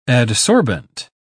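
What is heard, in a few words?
"adsorbent", British English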